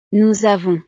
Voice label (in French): "nous avons", frances